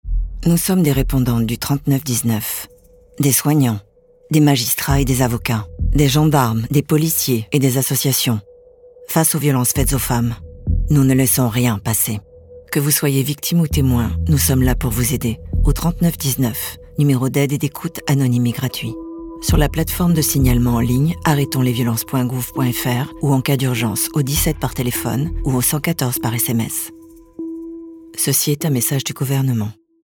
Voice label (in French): spot radio; Face Aux Violences; Ne Rien Laisser Passer